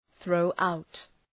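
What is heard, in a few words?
"throw out", English; {‘ɵrəʋ,aʋt}; (Ρήμα)● αμολάω● πετάω έξω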